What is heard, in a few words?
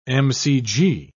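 "mcg", British English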